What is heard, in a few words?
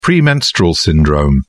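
"premenstrual syndrome", British English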